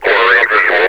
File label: acquiring on visual, metropolice vo; Half Life Alyx